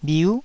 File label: "bhiu", Taiwanese